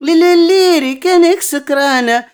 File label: OLD WOMAN, Arabian Traditions